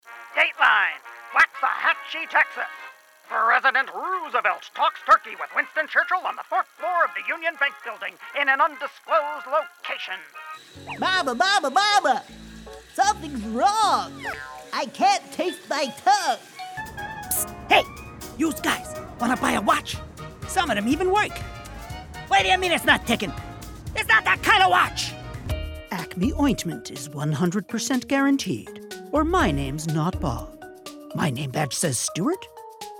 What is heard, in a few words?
Animation